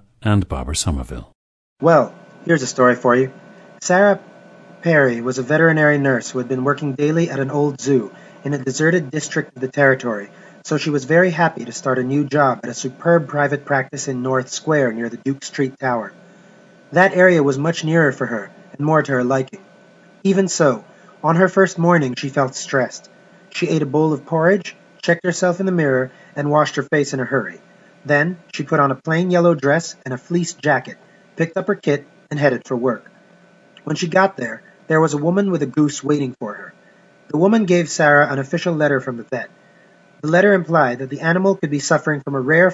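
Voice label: philippines, dialects of English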